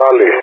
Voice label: "tally", ENG; Allied Flight; DCS